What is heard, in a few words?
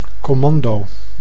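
"commando", Dutch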